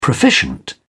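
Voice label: "proficient", British English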